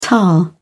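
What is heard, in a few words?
"tar", British English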